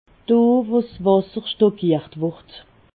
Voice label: "wasser", alsace